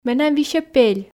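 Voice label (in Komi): менам висьӧ пель, Komi, female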